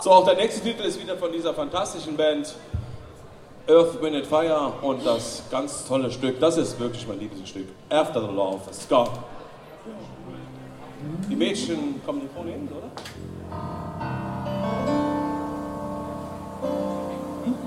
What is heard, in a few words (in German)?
Ansage, Konzert; Boemundhof, Saarburg